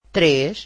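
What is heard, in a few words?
"treish" Portuguese female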